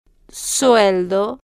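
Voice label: "sueldo", Spanish, slow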